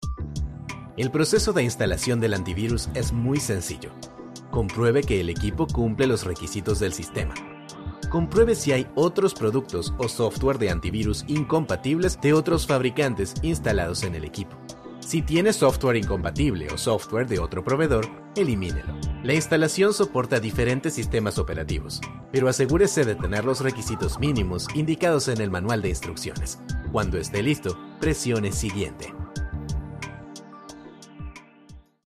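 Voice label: Latin American Spanish, E-Learning; GoLocalise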